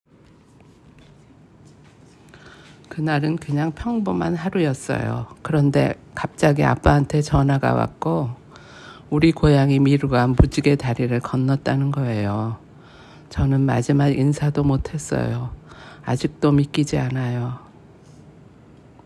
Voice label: Old Female Sad, korean voice emotion